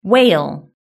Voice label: "whale", British English

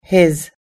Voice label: "his", us english

